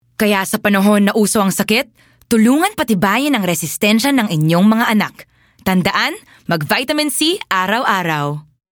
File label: Tagalog, Trustworthy, Concerned